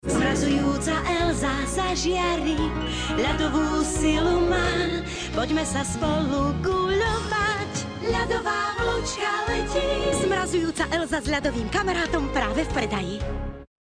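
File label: Female Slovak commercial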